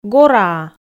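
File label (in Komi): "гораа", Komi-Zyrian, female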